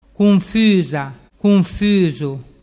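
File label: "cunfusafusu", Vernazzese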